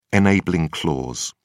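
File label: "enabling clause", British English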